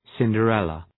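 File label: "Cinderella", English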